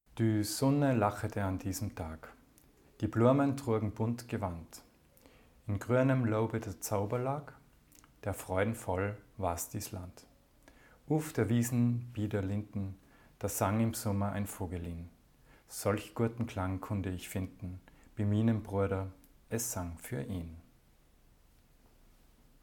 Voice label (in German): mittelhochdeutsches gedicht maennliche stimme; sprachliche vielfalt